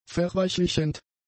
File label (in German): "verweichlichend", German; adjectives